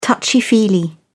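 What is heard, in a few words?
"touchy-feely", English, British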